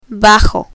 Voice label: "bajo", Spanish

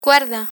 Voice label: "cuerda", Spanish; spanish vocabulary; learn spanish

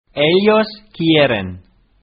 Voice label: "ellos quieren", Spanish

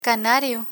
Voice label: "canario", Spanish; direct object pronouns; learn spanish

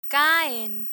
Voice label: "caen", Spanish; irregular spanish verbs; learn spanish

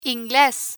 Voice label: "ingles", Spanish

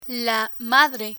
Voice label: "la madre", Spanish

